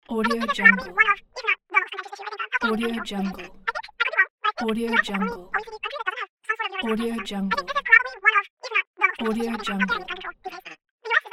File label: telephone babble talk